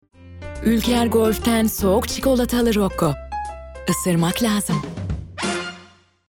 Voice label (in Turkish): sicak sakin